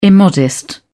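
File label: "immodest", British English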